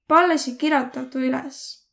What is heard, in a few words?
write, Võro